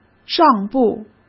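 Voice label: "让步", Mandarin Chinese; hsk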